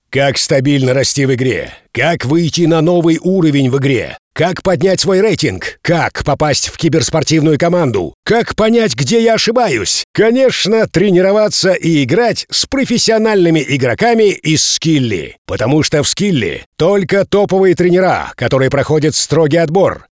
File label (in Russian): zakadrovyy tekst yarko; golos webinar